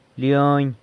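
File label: "leony", San Lucas Quiaviní Zapotec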